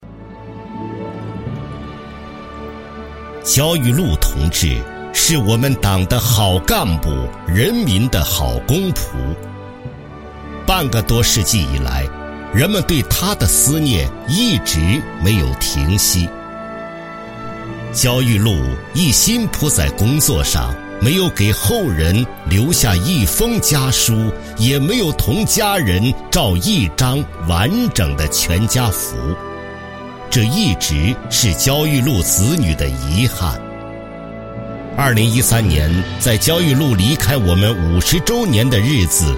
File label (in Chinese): 男-人物纪录片; 焦裕禄